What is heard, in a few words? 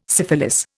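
"syphilis", Indian English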